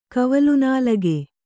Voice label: "ಕವಲ್ನಾಲಗೆ", Kannada

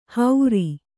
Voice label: "ಹೌರಿ", kannada

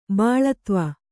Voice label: "ಬಾಳತ್ವ", Kannada

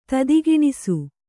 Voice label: "ತುದಿಗಾಣಿಸು", Kannada

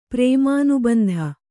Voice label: "ಪ್ರೇಮಾನುಬಂಧ", kannada

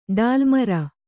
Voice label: "ಡಾಲ್ಮರ", Kannada

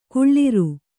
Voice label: "ಕುಳ್ಳಿರು", Kannada

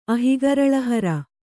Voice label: "ಅಹಿಗರಳಹರ", Kannada

